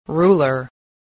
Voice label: "ruler", English spelling